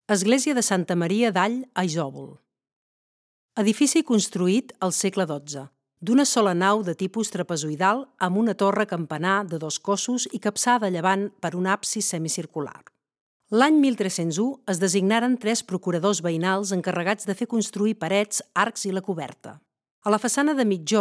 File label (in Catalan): locució català; santa maria